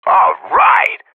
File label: radio bot cheer; Counter Strike Model Pack; CS:GO